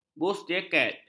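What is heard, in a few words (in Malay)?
"busjaket", Malay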